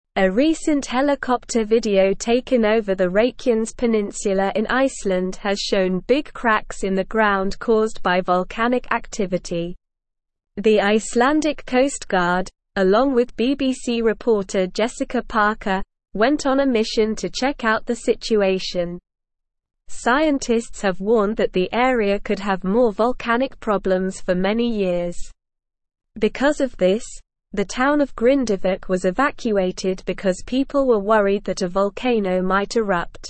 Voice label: English Upper Intermediate SLOW Reading; English Newsroom

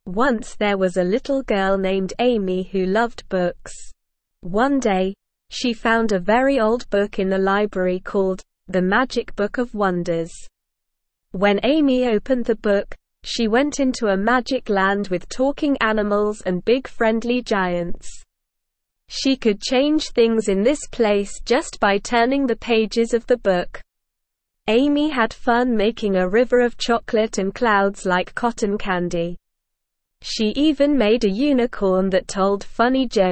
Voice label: ESL Short Stories for Kids Beginner SLOW Reading; Amy and the Magic Book

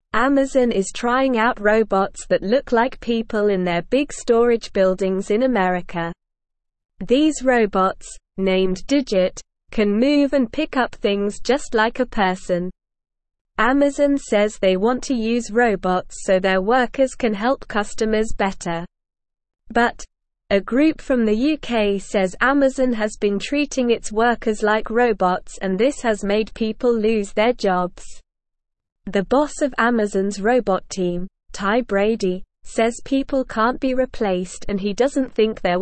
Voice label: English Beginner SLOW Reading; English Newsroom; Amazon Tests Human Like Robots to Help Workers

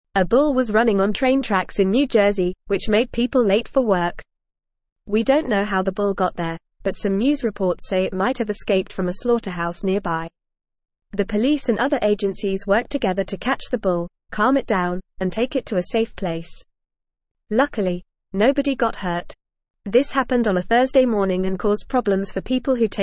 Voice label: English Upper Intermediate FAST Reading; Bull on New Jersey train tracks causes delays; English Newsroom

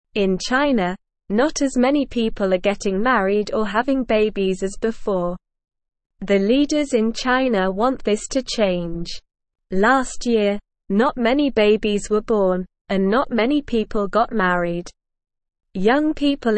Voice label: Beginner SLOW Reading, English; English Newsroom; Chinas Leaders Want More People to Get Married

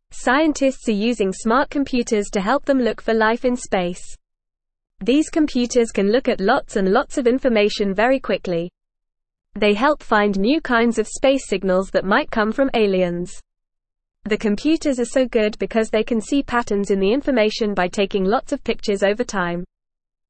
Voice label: English FAST Reading; English Newsroom; Smart Computers Help Scientists Look for Space Life

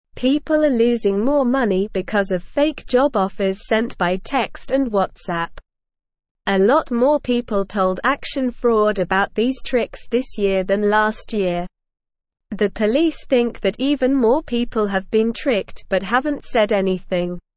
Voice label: English Lower Intermediate SLOW Reading; Tricky people trick others with fake jobs; English Newsroom

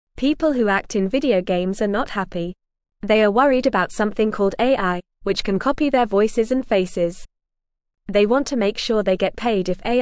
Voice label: English FAST Reading; Actors in Video Games Want Fair Treatment Now

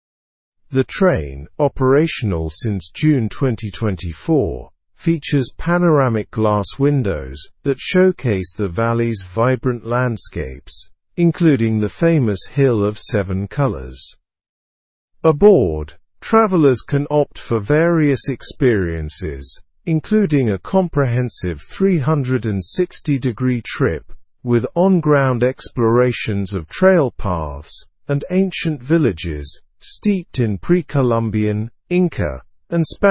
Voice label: learn english through news advanced slow; discover history on argentina s first solar train

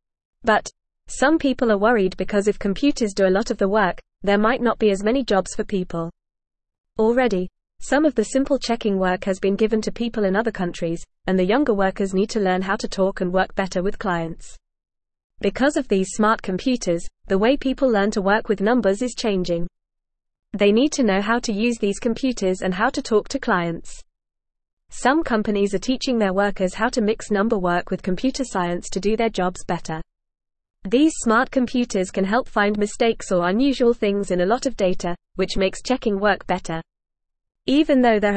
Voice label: English Beginner FAST Reading; English Newsroom; Smart Computers Changing How People Work with Numbers

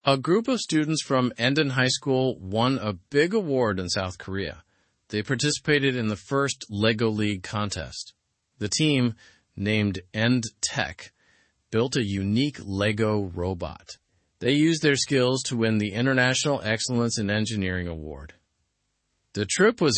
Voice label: english through news lower intermediate normal; endon lego team wins in south korea

